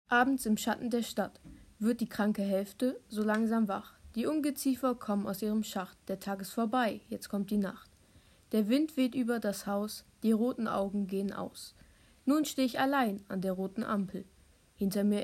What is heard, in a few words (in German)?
Audio Gedicht